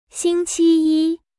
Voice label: "xingqi yi", chinese